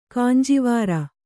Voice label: "ಕಂಜವೈರಿ", Kannada

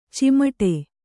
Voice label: "ಚಿಮುಟು", kannada

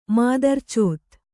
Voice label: "ಮಾದರ್ಚೋತ್", Kannada

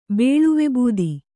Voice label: "ಬೇಳುವೆಬೂದಿ", Kannada

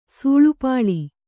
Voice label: "ಸೂಳುಪಾಳು", Kannada

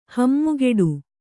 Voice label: "ಹಮ್ಮುಗೆಡು", kannada

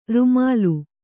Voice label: "ರೂಮಾಲೆ", Kannada

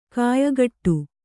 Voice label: "ಖಯಖೋಡಿ", Kannada

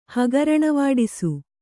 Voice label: "ಹಗರಣವಾಡಿಸು", Kannada

